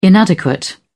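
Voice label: "inadequate", British English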